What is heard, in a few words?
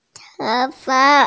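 "hair", hearing impaired; tou2fa5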